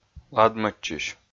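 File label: "ладмӧдчысь", Komi, male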